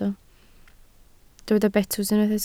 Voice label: "betws", Welsh